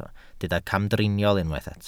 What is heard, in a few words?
"camdriniol", Welsh